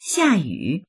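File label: "xia4yu3", Chinese; xiàyǔ; 下雨; 雨が降る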